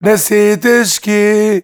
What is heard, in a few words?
MAN VOICE, Arabian Traditions